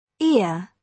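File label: "ear", British English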